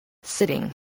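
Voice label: "sitting", spoken word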